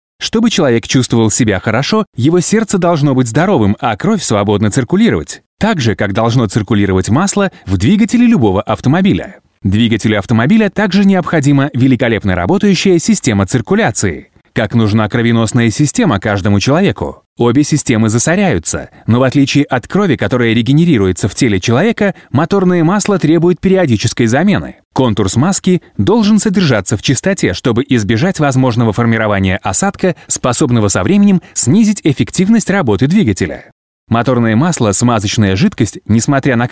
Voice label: Russian Male Engine Corporate

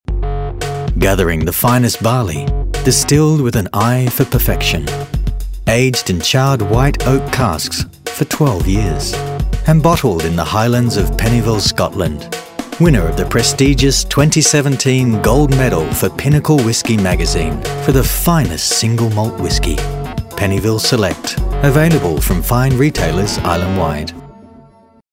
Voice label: AU English, Australian, Male; Pennyville Whiskey

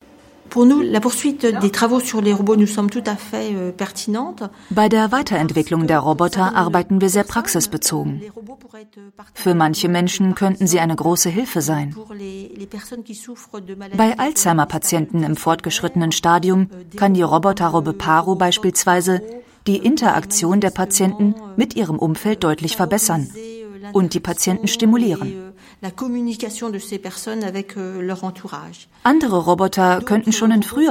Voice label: German documentary sample

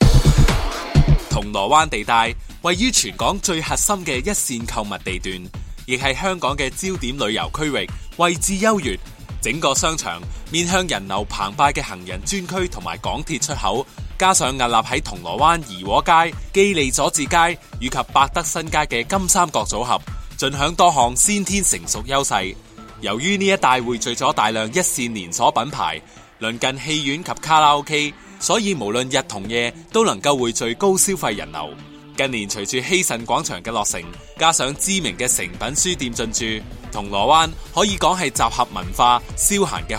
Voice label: Cantonese Male; Causeway Place

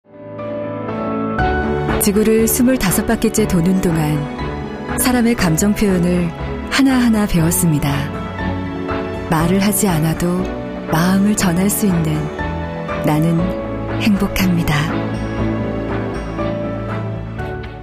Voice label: Korean Voice Sample

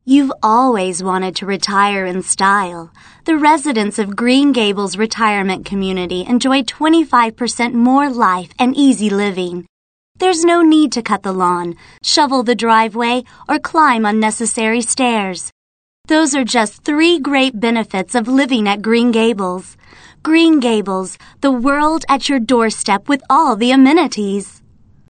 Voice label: US English Retirement Village

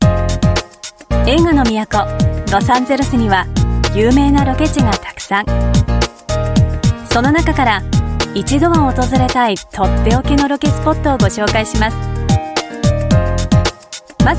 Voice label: Japanese Movie BGM; LA

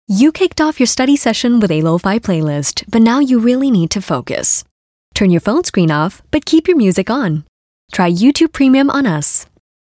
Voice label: Asian English Chillhop

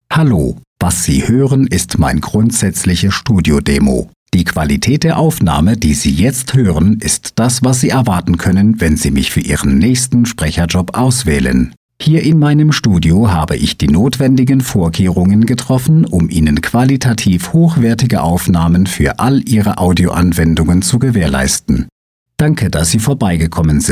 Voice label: German male

